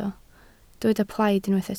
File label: "plaid", Welsh